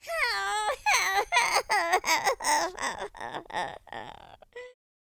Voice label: sad, Irish; speech